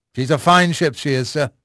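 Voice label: English male; Pirates Of The Caribbean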